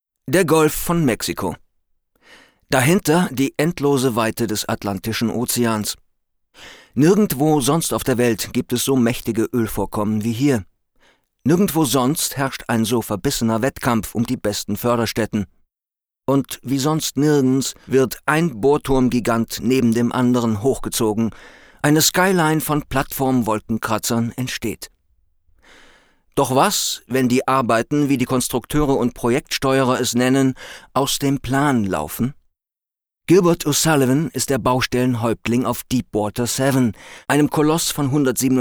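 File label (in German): doku, deutsch männer; sprecher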